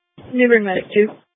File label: EMS Dispatch